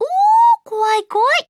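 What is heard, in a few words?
Voice, Japanese; Lenna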